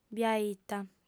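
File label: "biaita", female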